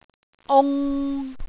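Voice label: "ung3", Cantonese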